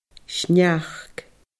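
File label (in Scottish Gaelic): "sneachd", Scottish Gaelic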